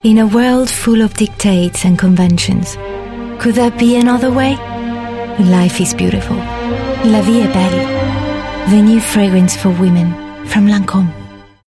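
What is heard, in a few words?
english commercial, Female; Foreign Language Voice Samples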